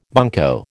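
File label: "bunco", American English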